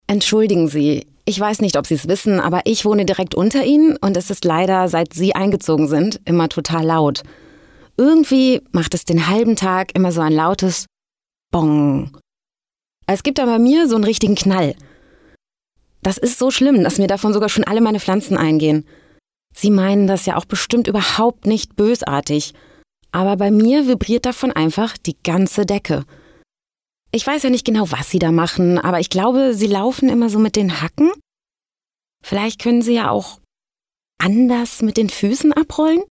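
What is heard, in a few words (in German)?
commentary, Variabel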